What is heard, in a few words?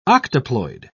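"octoploid", English, British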